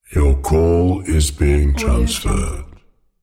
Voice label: voice your call is being transferred british